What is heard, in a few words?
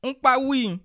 sorry, bassa